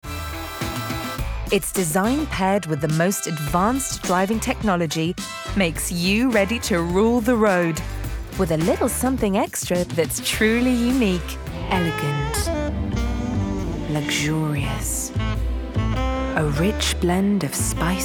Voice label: Commerical

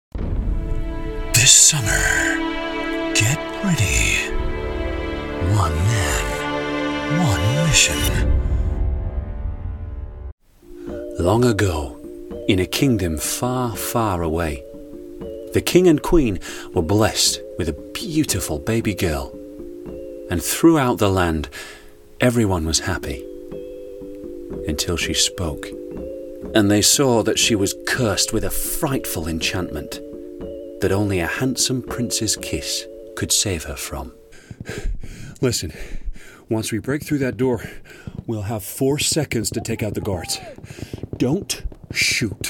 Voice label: Character

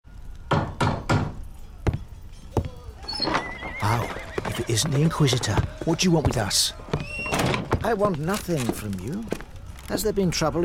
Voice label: Character

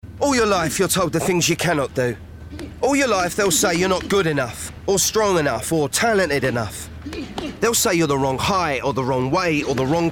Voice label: Commercial

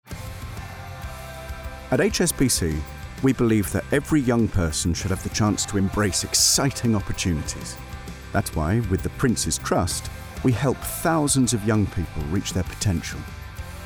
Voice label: with music; HSBC

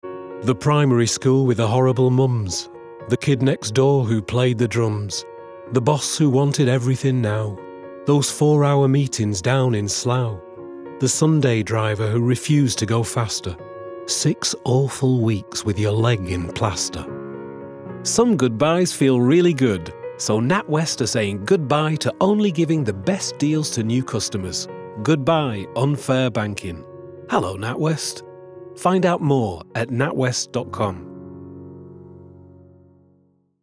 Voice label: Commercial; Natwest

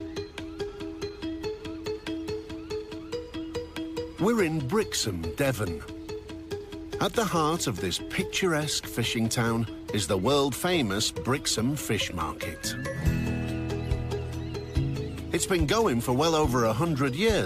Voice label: Documentary

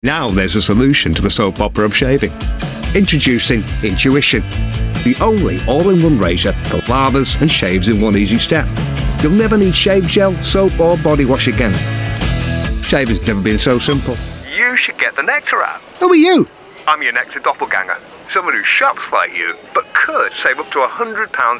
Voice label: Commercials

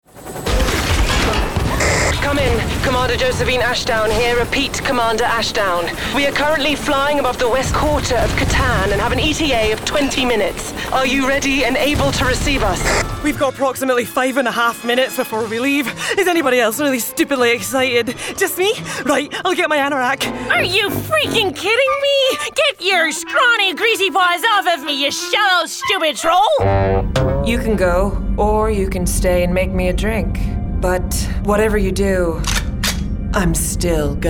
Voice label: animation

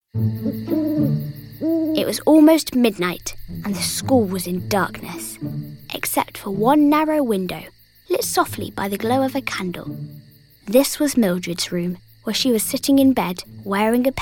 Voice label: Narrative

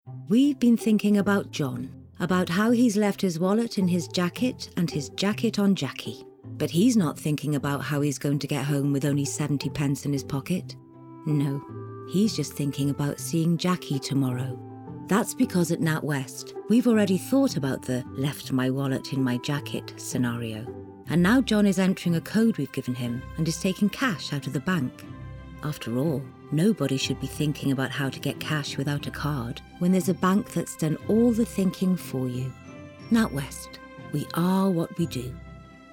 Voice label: Commercial

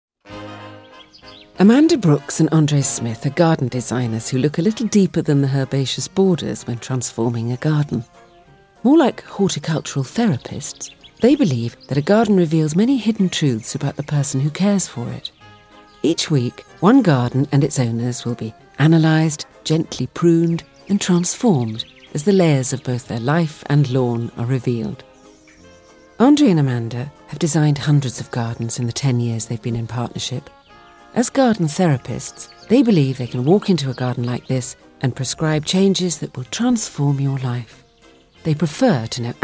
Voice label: Narration

